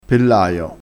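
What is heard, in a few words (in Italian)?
"pellaio", dialetto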